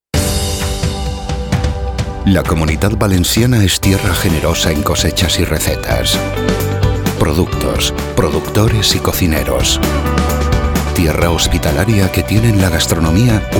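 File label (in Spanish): corporativo España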